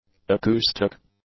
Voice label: "acoustic", English; nouns